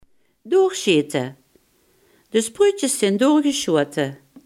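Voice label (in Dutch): "doorschieten" Limburgish dialect word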